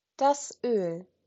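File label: "Öl", German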